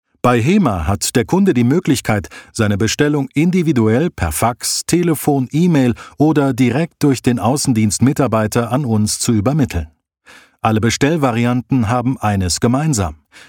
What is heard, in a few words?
Imagefilm; Hema